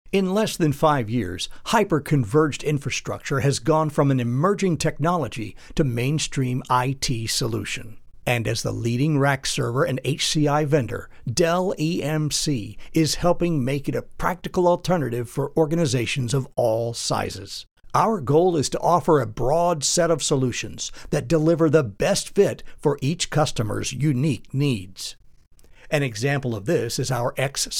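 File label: Corporate Video, male voice; Dell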